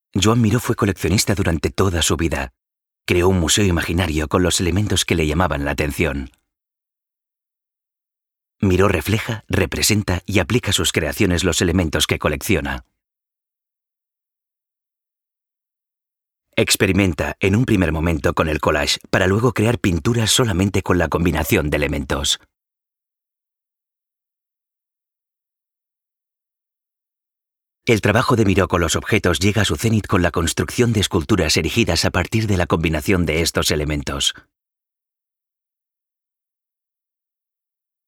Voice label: male spanish museum comercial